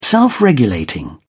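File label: "self-regulating", British English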